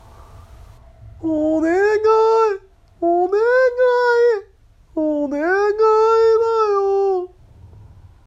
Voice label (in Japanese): おねがいだよ 女性